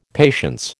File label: "patience", American